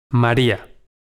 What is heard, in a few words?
"maria", pron